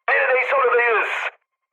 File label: near waypoint, mood high, speech tur; War Thunder